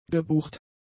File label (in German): "gebucht", German; adjectives